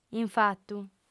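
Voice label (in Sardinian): "infatu", female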